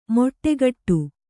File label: "ಮೊಟ್ಟೆಗಟ್ಟು", Kannada